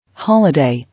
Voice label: "Holiday", English